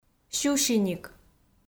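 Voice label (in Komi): "сюсиник", Komi, female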